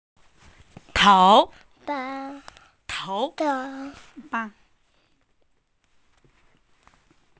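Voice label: "tou2", Mandarin Chinese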